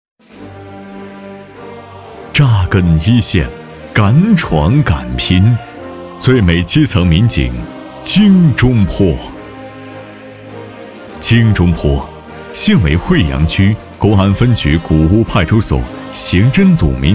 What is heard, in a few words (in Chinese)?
B男 人物 专题